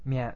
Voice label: "mya", kana